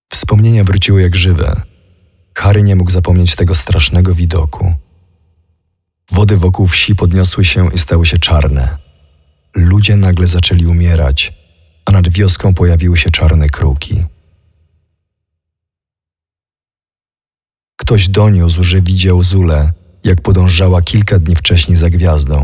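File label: Voices VO Polish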